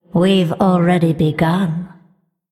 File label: Undead Emote Mirror Greetings